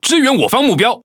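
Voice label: Chinese (Taiwan) Speech Quick Commands Ally Ship; World Of Warships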